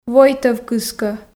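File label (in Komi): "войтӧв кыскӧ", Komi, female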